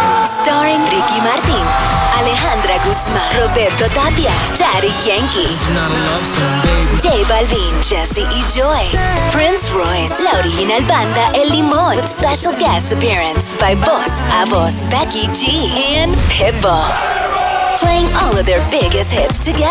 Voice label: radio women concert fiesta latina, radio imaging

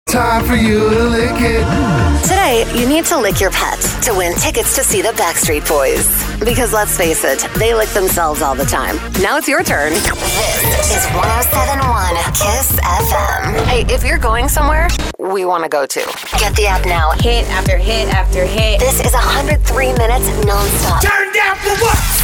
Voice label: radio chr

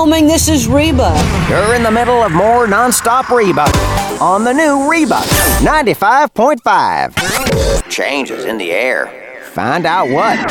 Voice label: radio country, radio imaging

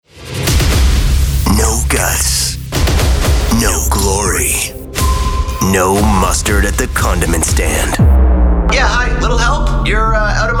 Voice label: radio imaging men sports

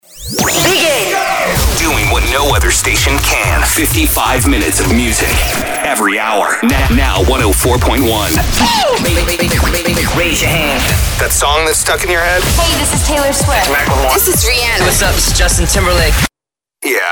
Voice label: radio chr, radio imaging

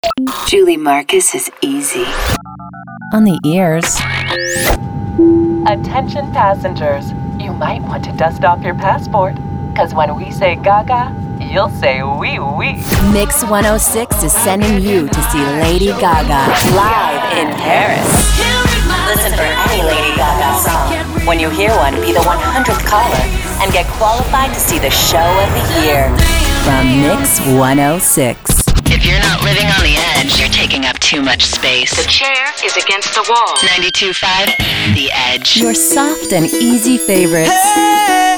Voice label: radio imaging

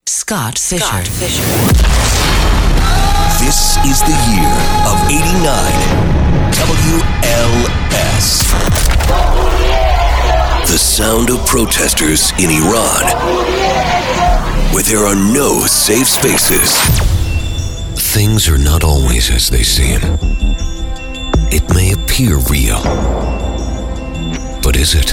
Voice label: radio men newstalk, radio imaging

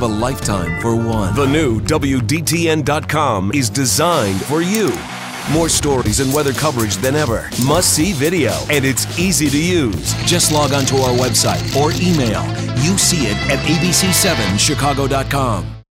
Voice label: tv affiliate men news

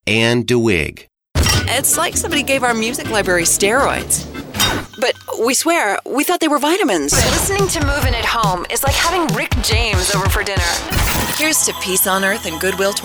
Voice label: adult; radio imaging

